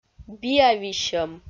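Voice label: "биа висьӧм", Komi, female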